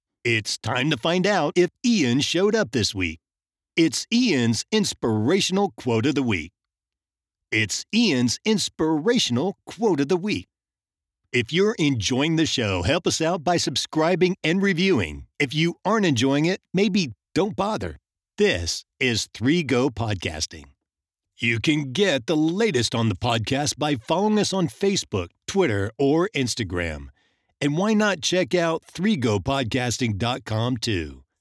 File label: English (Asian), Male